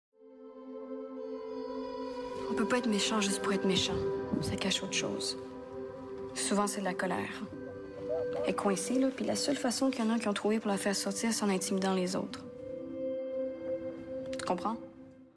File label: Narration